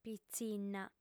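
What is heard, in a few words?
"pitzinna", female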